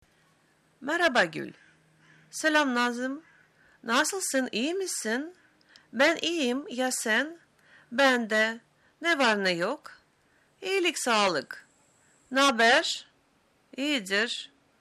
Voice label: dialog